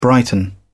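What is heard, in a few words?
"brighten", British English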